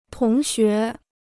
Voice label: "同学", Chinese

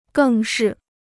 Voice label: "更是", Chinese

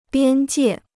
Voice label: "边界", Chinese

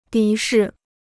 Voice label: "敌视", Chinese

